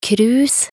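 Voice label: "krus", Norwegian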